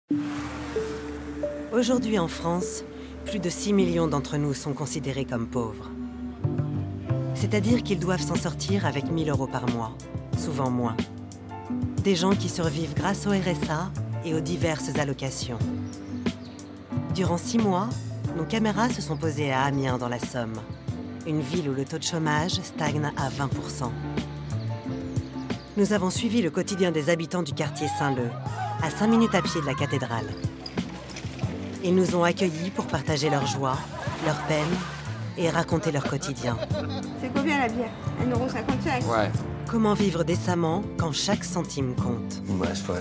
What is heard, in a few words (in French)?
COMMENTAIRE TV